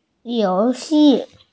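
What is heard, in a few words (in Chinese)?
"you2xi4", Mandarin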